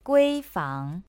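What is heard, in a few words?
"guifang", Chinese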